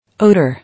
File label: "Odour", English